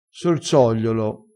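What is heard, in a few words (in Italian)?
"sorsogliolo", dialettale, crespinese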